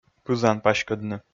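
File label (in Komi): "пызан паськӧдны", Komi, female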